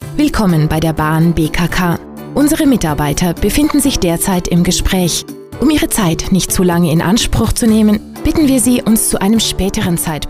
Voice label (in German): Telefonansage